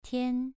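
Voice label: "tian" Mandarin Chinese, second tone, pronunciation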